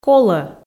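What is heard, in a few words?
"колӧ", Komi, female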